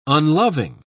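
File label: "unloving", English, British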